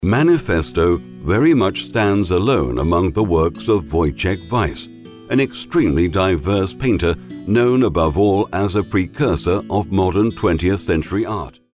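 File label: English, British, male, corporate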